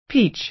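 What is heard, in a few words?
"peach", American English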